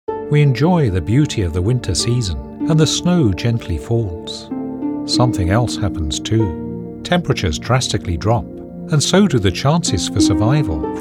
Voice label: Caring, voiceovers